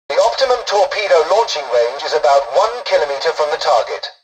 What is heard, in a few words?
tutorial dialogue, english; War Thunder